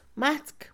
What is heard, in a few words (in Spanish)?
dos, Mixe, Mujer; INALI